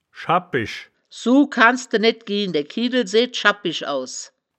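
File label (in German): "schab pisch", wittlicher woerterbuch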